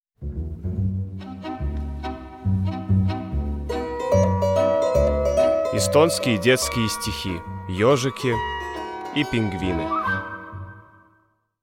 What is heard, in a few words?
ru; Hedgehogs and Penguins